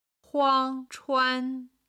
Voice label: "huang chuan", chinese